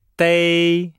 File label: "dei", Nihao